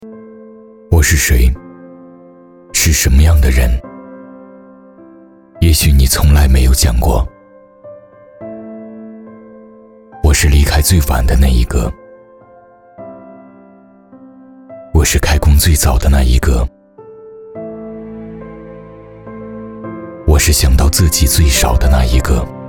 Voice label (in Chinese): 其他 微电影 走心 温情, 男; 走心我是谁